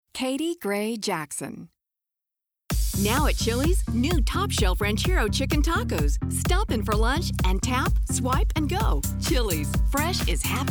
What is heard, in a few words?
Commercial VO